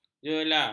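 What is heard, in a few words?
"jela", Malay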